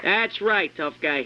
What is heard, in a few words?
TOUGH GUY